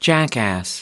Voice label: "jackass", us

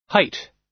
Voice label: "height", us

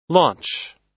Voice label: "launch", us

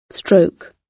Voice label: "stroke", gb

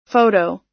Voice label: "photo", us

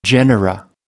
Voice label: "genera", us

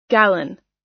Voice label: "gallon", us

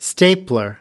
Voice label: "stapler", us

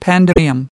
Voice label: "pandemonium", us

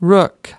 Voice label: "rook", us

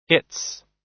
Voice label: "its", us

